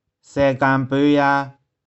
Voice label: "sae1kang1poe3ya3", 玉環

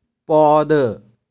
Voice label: "pau3deu1", 玉環

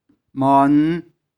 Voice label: "mau1n5", 玉環

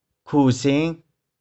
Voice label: "khu2shing5", 玉環

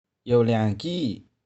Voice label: "iou2liang2ki6", 玉環

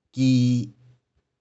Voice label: "ki1", 玉環

